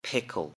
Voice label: "pickle", English; Common Prepared Foods